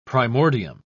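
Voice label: "primordium", British English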